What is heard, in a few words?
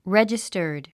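"registered", English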